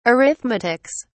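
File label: "arithmetics", British English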